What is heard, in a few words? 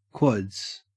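"quads", Australian English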